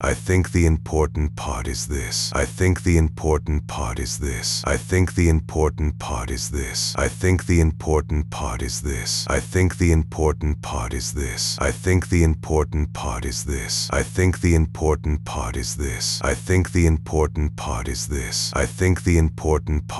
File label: stereo voice center music wide